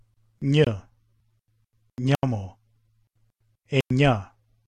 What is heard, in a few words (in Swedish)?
Njamo, Romska alfabetet